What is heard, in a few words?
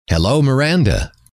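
hello, male; trainer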